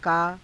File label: "kaa", Hindi